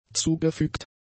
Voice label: "zugefügt", German; adjectives